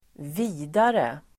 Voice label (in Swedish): "vidare", swedish